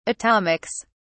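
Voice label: "atomics", English, uk British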